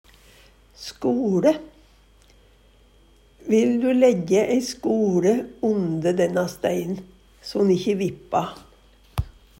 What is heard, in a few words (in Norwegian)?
"skoLe", Numedalsmål; Substantiv hokjønn; Vil du læjje ei skoLe onde denna stein so'n ikkje vippa?